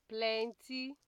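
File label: "plenty", Nigerian Pidgin, female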